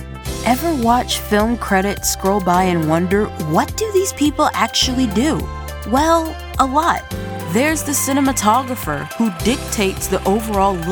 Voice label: Cinema Narration, Snarky, Girl Next Door, Energetic